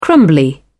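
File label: "crumbly", British English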